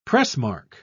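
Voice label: "pressmark", British English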